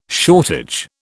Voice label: "shortage", British